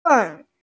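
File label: "kun4", Mandarin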